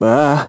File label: vo confused; Crypt of the NecroDancer